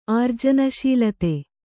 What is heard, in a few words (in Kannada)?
"ಆರ್ಜನಶೀಲತೆ", Kannada